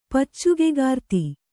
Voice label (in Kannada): "ಪಚ್ಚುಗೆಗಾರ್ತಿ", Kannada